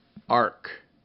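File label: "ark", English; RhymeTest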